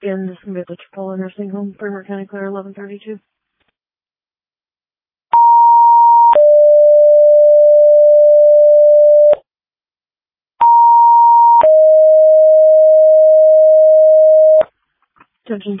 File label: Ambulance, dispatch